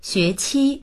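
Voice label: "xue2qi1", Mandarin Chinese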